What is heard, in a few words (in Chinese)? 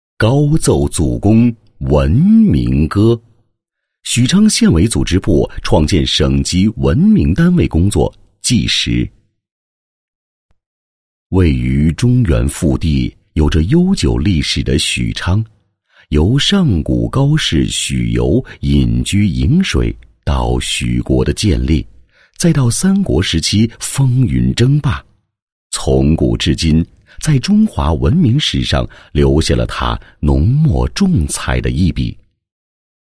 专题 汇报 工作纪实 沉稳, 男; 创建省级文明单位